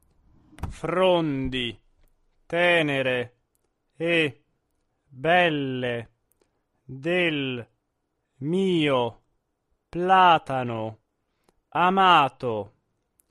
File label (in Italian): monotono